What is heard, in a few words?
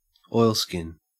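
"oilskin", Australian English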